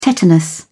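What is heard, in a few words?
"tetanus", English, British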